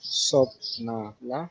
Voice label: i love you, wolof